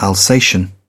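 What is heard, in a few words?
"alsatian", British English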